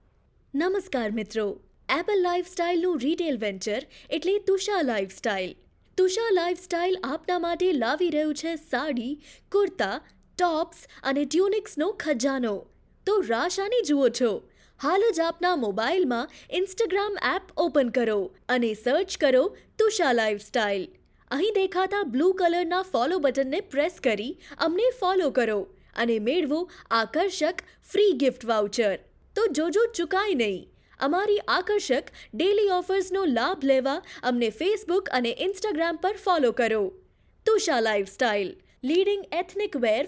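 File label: gujarati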